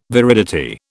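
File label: "viridity", British English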